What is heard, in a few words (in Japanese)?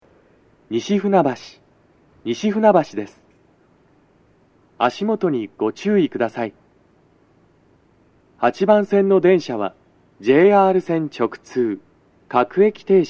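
到着放送 男声, 各駅停車 三鷹行き; 収録者:こたけ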